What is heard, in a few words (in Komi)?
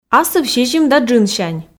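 асыв сизим да джынсянь, female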